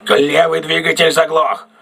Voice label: voice message left engine damaged, crew ships russian